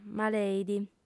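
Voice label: "maleit", female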